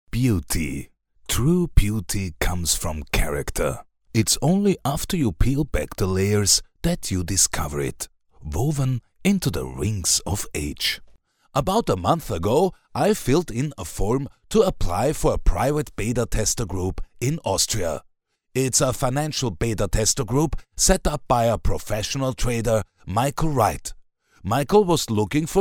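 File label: German, male, voice over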